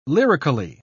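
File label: "lyrically", English, British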